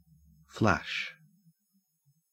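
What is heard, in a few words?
"flash", Australian English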